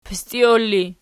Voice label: "pistiol", Archi